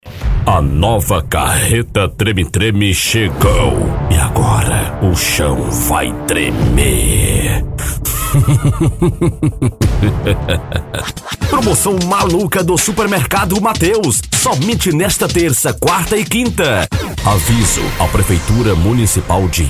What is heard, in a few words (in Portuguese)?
locutor